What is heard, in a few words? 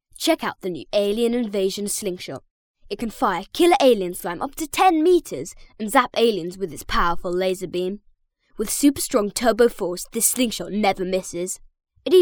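English British, boy, child voice over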